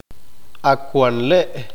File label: "aquél aquella", Q'eqchi'